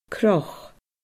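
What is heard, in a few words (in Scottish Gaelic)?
"croch", Scottish Gaelic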